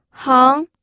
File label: "heng2", pinyin audio